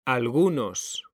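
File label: "algunos", Spanish, male; mekanta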